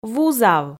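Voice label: "вузав", Komi, female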